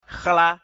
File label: "hla", mina